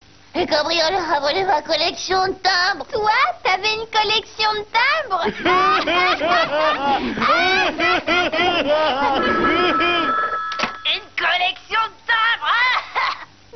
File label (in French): timbre fr